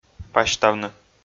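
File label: "пасьтавны", Komi, male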